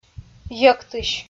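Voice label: "йӧктысь", Komi, female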